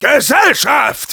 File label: incoming, de; "Gesellschaft!"; Demoman